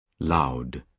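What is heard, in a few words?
"loud", British English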